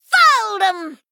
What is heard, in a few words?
Female Goblin Attack